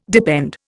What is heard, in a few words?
"depend", Indian